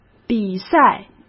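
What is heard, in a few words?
"比赛", Mandarin Chinese; hsk; chinese-flashcards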